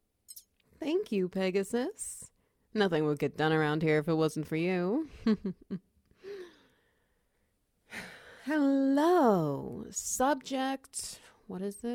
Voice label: SFX Wet Noises; Royal Xenomorph Chimeric Protocol

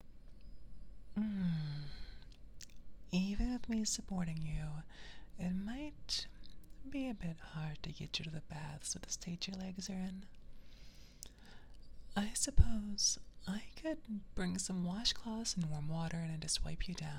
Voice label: Forest Elf Lewd SFX

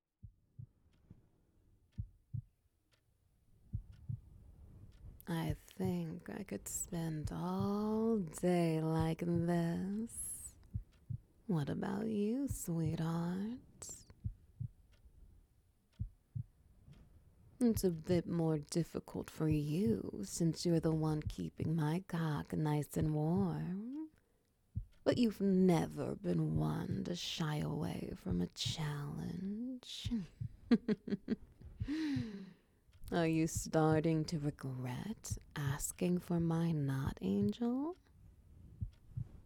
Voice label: Ambience SFX Wet Noises; A Were-Panther's Love Language

